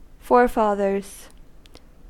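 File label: "forefathers" US English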